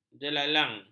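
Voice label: "jelalang", Malay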